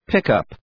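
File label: "pickup", English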